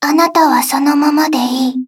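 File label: victory, Japanese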